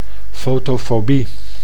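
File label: "fotofobie", Dutch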